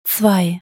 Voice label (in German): "zwei" deutsch